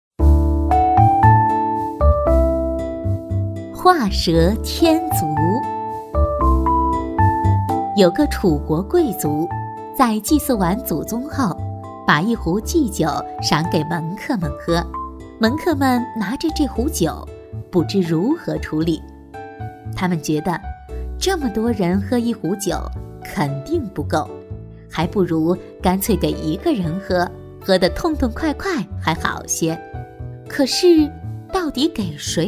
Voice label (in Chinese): 女 儿童读物; 国语女声配音; 画蛇添足